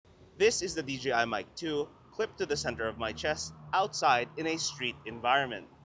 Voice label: DJI mic 3 street noisy